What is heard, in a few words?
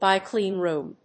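"bio-clean room", English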